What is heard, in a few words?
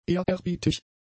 "ehrerbietig", german; adjectives